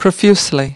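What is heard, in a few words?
"profusely", US English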